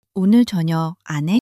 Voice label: sulk, monologue